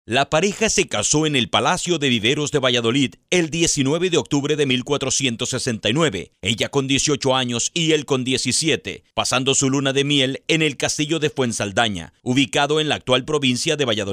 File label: locutor mexicano mexican voiceover; locutor mejicano